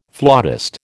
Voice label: "flautist", American